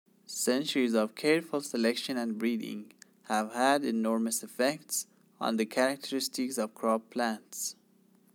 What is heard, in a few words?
read aloud